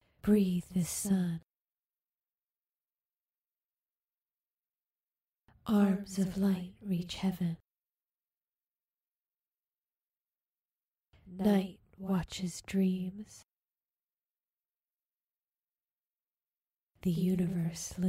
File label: Spoken Word